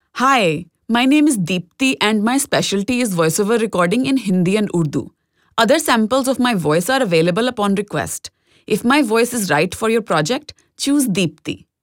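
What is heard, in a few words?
Hindi English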